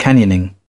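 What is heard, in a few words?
"cant", British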